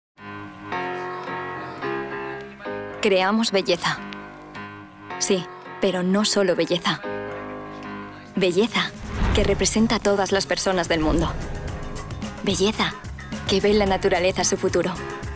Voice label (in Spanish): Corporativo; LOreal